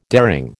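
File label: "daring", American English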